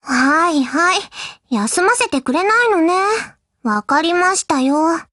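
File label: OPERATION BEGIN Japanese; GF Alarm; UMP45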